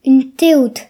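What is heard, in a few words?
"tongue", Breton; gcompris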